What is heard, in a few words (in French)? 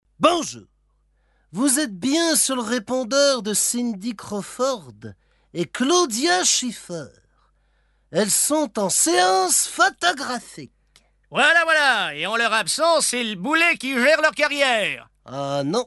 repondeur; Chevalier Laspales; messages de répondeurs